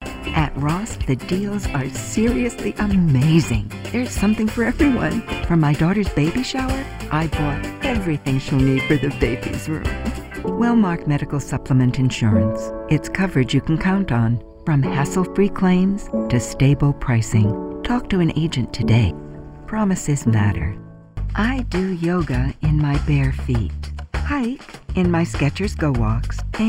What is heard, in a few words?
Commercial